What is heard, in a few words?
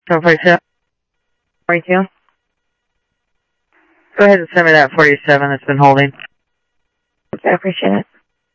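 Sheriff East County, scanner audio trunk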